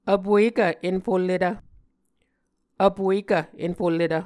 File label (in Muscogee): "vpuekv enfulletv", Muscogee